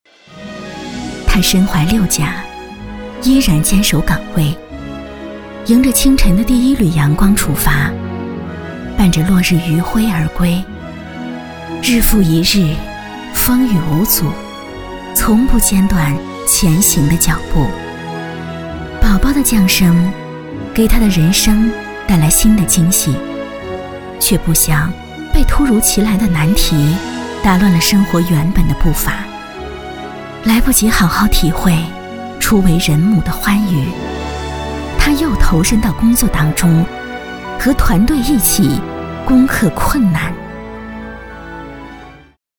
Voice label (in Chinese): 女 专题 人物介绍